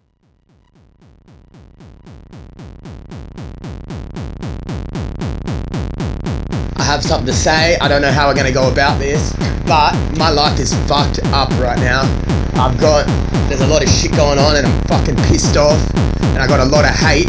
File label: Voicemail